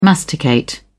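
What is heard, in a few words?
"masticate", British English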